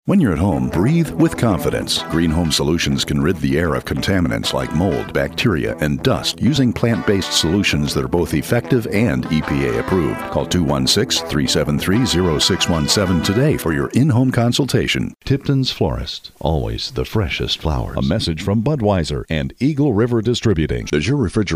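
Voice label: Commercial Spots, mellifluous articulate experienced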